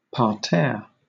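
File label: "parterre", British English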